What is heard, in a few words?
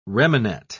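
"remanet", British English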